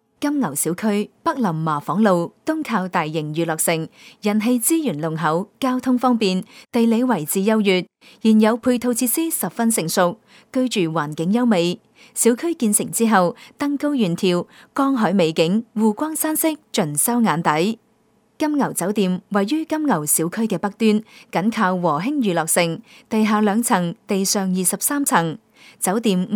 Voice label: Cantonese female girl